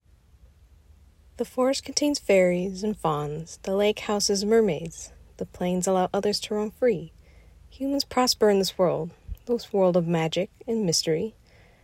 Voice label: voice over